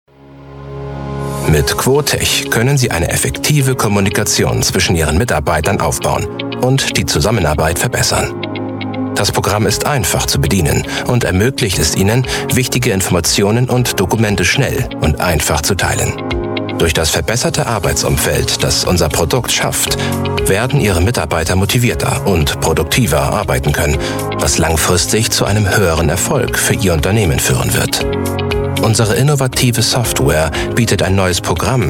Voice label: german voice over male elearning